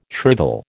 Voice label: "shrivel", American

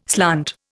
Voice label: "slant", Indian

